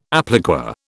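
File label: "appliqué", British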